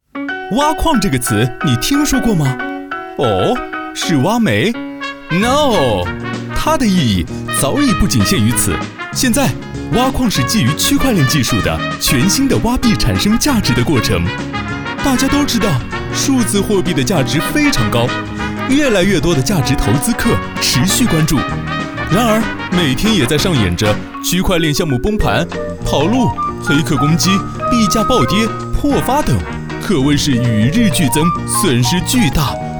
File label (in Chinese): 解说磁性活力